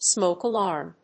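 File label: "smoke alarm", English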